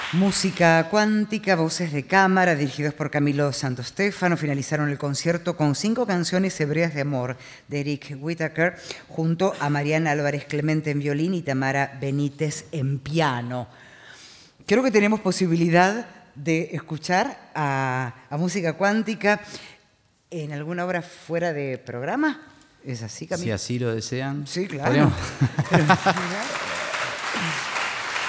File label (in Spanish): locutora